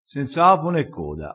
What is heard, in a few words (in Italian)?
"senza apo ne coda", dialettale